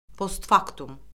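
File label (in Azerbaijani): "postfaktum", Azerbaijani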